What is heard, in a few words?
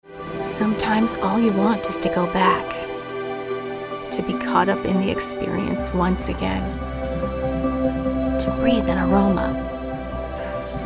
female american english comercial